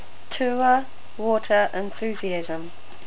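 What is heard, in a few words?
tour, New Zealand English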